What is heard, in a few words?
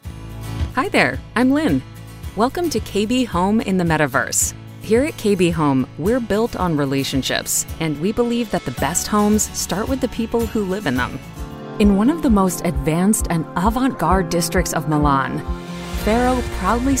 Corporate Narration